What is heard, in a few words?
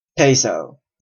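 "peso", Canadian English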